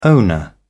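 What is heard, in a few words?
"owner", British English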